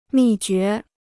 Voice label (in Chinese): "秘诀", Chinese